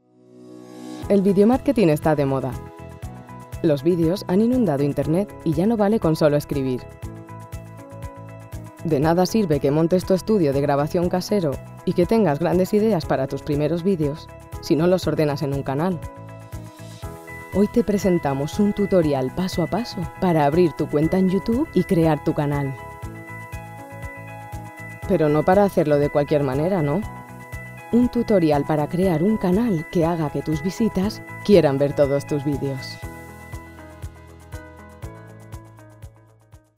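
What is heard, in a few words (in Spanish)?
Spanish Corporativo Videomarketing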